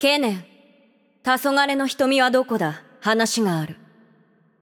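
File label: voice over, Japanese; Game Outro; bloom minigame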